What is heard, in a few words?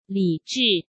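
"理智", Mandarin Chinese; chinese flashcards; hsk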